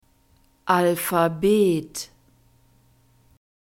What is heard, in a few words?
alphabet, German; phonetic script grouped consonants